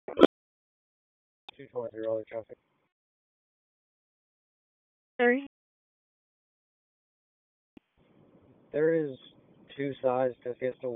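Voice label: Police Northeast District Dispatch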